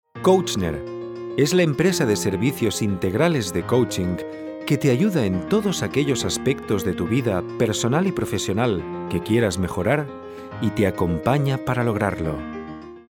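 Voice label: Spanish commercial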